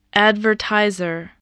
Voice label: "advertiser", English voice